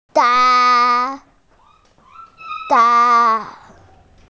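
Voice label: "na2", Mandarin Chinese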